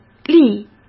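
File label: "立", Mandarin Chinese; hsk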